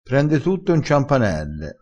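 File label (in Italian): "ciampanelle", dialettale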